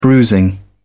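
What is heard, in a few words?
"bruising", English, British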